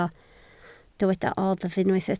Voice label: "oddf", Welsh